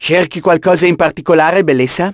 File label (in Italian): francese; doppiatori